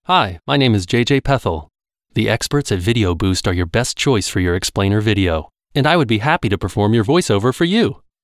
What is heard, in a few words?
American English; internationale voiceover